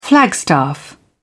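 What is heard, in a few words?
"flagstaff", British English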